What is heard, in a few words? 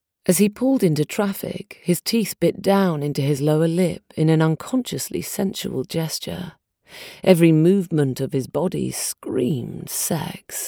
Erotic Sample